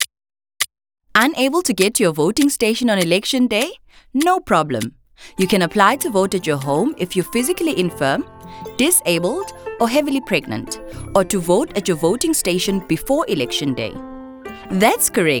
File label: Special votes, English